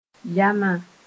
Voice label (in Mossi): "yama", moore